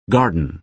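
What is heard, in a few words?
"garden", American English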